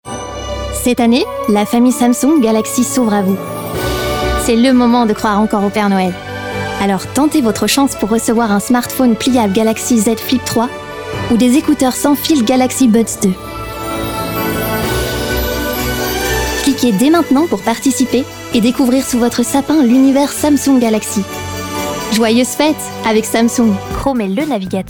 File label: Commercial